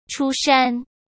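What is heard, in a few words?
"出山", Mandarin Chinese; hsk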